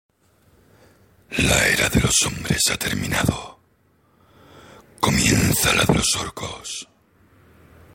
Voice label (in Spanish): warcraft voz orco